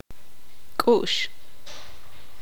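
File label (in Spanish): "corazón", tzutujil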